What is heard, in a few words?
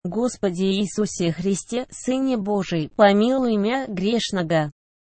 Jesus Prayer Pronunciation - Russian